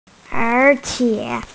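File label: "ér qiě", Mandarin Chinese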